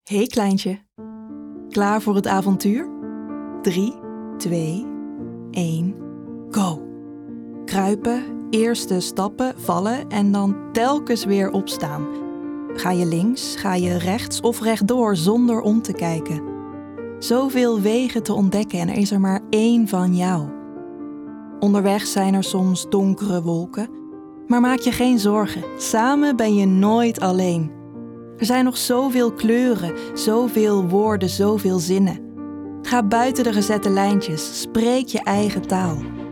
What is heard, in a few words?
Commercial